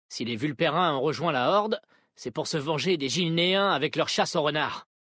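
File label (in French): French Homme Blague; Vulpérins; Chasse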